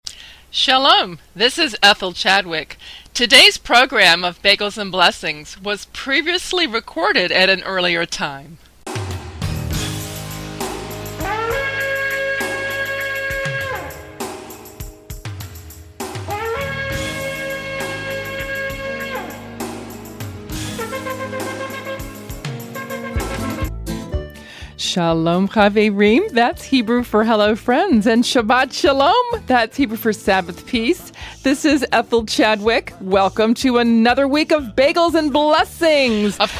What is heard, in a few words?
Interview